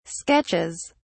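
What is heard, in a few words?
"sketches", British English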